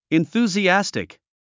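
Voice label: "enthusiastic", English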